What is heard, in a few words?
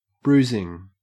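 "bruising", Australian English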